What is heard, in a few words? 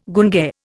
"gunge", Indian